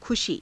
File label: "khushii", Hindi; खुशी; [女]喜び